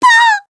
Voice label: Voice damaged; white nopou